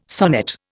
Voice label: "sonnet", Indian